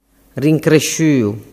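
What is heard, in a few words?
"rincresciuu", Sicilian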